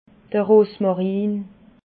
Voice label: "rosmarin", alsace

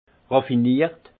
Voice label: "raffiniert", alsace; vin

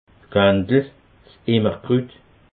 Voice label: "quendel", alsace

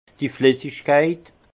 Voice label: "flissigkeit", alsace; fluidité; vin

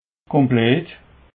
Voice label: "komplett", alsace

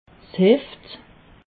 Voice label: "heft", alsace

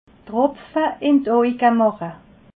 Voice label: "tropfe", alsace

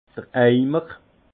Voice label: "eimer", alsace; vin; seau

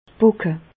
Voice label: "pocke", Bas Rhin; medecine; variole

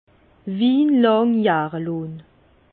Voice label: "wie lang jahre", Bas Rhin; vin; cuvaison durée

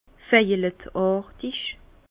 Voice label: "veilleletartig", Bas Rhin; vin; violacé

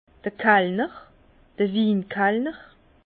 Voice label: "winkallner", Bas Rhin; vin; sommelier

